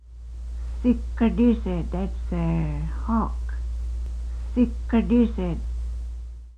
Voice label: hawk, quapaw words